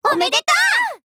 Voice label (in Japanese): VO jp